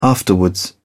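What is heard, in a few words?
"afterwards", British English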